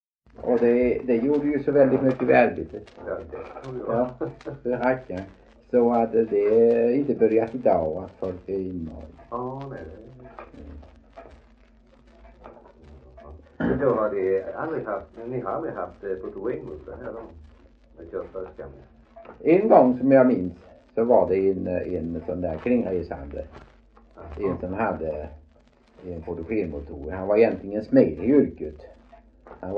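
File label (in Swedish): Veddige Halland, Svenska sagor dialekter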